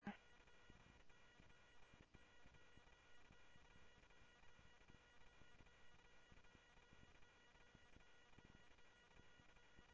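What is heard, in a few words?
Mesa Police Department, scanner audio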